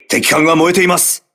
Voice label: voice message enemy on fire, crew ships japanese